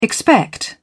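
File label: "expect", British English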